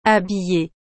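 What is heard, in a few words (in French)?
"habille", French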